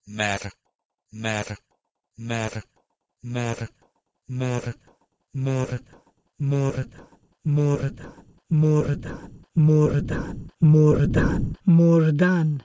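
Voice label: Proto-Indo-European mer to Persian mordan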